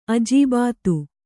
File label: "ಅಜೀಬಾತು", Kannada